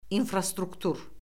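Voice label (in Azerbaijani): "infrastruktur", Azerbaijani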